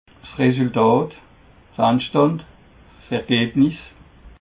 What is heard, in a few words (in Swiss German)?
"resultatt", alsace